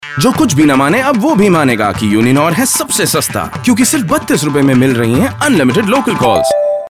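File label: Male Voice Over Hindi; Uninor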